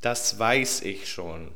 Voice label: rise fall